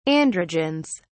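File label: "androgens", English, British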